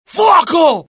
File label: alert, dialogue IT; mohaa; attack